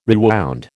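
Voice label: "rewound", American English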